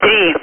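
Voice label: Speech, Russian, air traffic control; Digits; DCS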